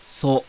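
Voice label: kana "SO", Japanese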